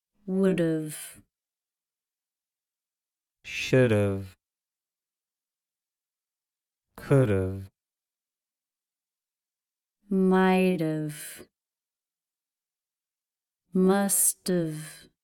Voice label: Modal Verb Contractions Slow Motion